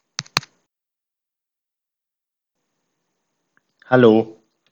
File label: headset audio jabra 6430